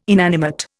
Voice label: "inanimate", Indian